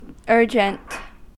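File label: "urgent", American English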